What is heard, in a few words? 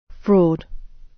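"fraud", British English; Crime and punishment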